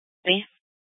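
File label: police dispatch radio, scanner; Mesa PD Dptmnt; 1 Fiesta Dispatch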